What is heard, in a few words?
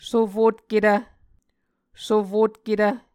"sofotketv", Muscogee